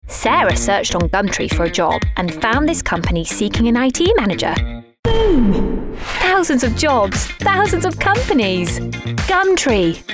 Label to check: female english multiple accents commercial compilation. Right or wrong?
Right